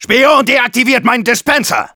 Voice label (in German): Engineer auto attacked by spy, de